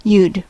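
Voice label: "you'd", US English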